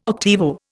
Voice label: "octavo", Indian

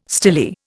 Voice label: "stilly", Indian

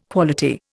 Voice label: "quality", Indian

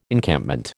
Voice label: "Encampment", American

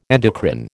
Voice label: "endocrine", American

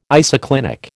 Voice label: "isoclinic", American

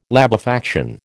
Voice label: "labefaction", American

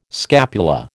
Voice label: "scapula", American

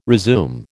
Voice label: "resume", American

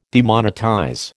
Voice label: "demonetize", American

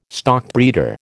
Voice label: "stockbreeder", American

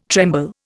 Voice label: "tremble", Indian

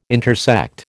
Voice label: "intersect", American

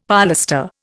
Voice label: "polestar", Indian; Dictionary

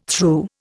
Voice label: "threw", Indian

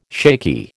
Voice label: "shaky", American

